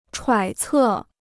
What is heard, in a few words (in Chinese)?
"揣测", Chinese